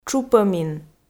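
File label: "тшупӧмин", Komi, female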